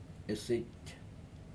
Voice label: "three", Aneityum